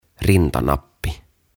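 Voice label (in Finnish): "Rintanappi", suomeksi; Badge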